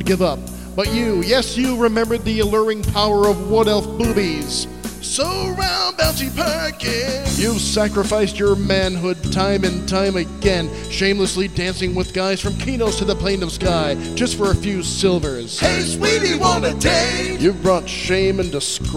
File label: plays female